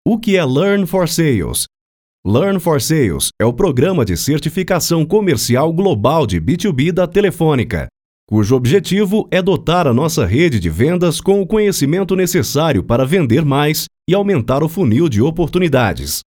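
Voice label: Studio Quality Sample Brazilian Portuguese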